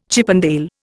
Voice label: "Chippendale", Indian

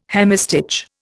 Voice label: "hemistich", Indian

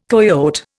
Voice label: "coyote", Indian

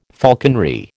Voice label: "falconry", American

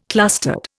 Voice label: "clustered", Indian

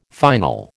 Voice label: "final", American

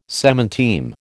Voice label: "semanteme", American

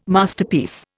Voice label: "Masterpiece", Indian

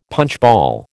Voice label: "punchball", American

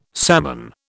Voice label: "salmon", British

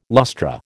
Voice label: "lustra", American

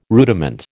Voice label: "rudiment", American

